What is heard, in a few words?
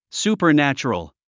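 "supernatural", English word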